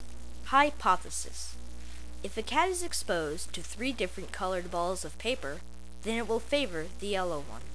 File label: narr; hypothesis